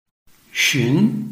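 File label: "xun2", Mandarin Chinese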